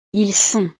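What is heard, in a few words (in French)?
"ils sont", French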